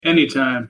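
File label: "anytime", English pronunciation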